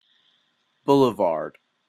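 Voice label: "boulevard", Canadian English; pronunciations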